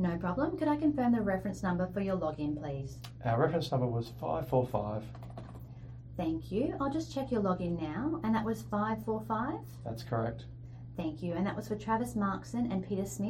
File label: lone worker safety monitoring logout sample call